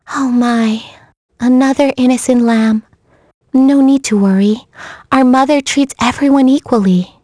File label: vox, en; kingsraid; voices heroes en